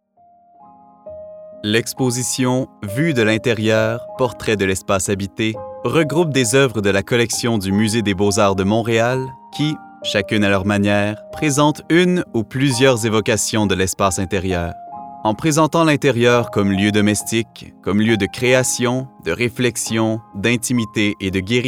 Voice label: narration de musee francophone fr qc informatif enveloppant chaleureux

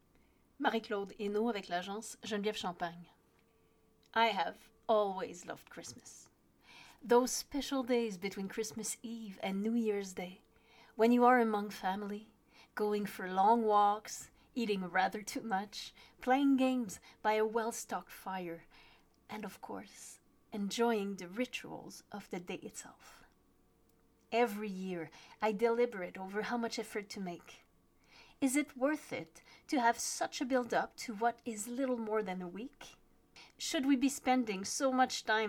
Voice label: narration anglaise

